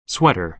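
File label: "sweater", American English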